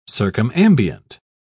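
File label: "circumambient", British English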